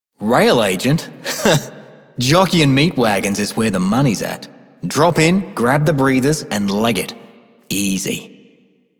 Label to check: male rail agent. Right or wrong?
Right